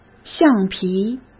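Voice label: "橡皮", Mandarin Chinese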